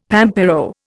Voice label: "pampero", Indian; Dictionary